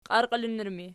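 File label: "qiarqiilinnur" ergative, Archi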